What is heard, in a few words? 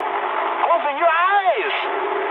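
English player friendly fire mood medium; speech; War Thunder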